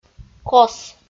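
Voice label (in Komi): "кос", Komi-Zyrian, female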